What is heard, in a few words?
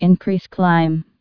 increase climb, tcas, female